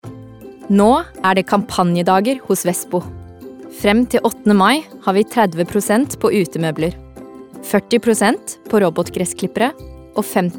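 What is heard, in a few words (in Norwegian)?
reklame, Norwegian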